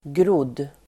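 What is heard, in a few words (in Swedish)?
"grodd", Swedish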